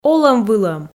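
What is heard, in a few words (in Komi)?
"олӧм-вылӧм", Komi, female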